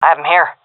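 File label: sees enemy, female; vo; Counter Strike Model Pack; CS:GO; seal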